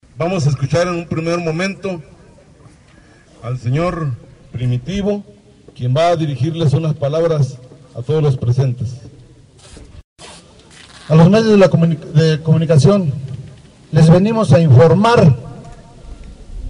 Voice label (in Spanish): Testimonio Sr; cnte; nochixtlan